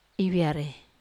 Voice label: "via", Wamesa

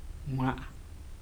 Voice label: year, Kibembe

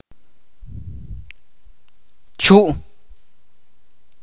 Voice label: "Seno" in Ch'orti'

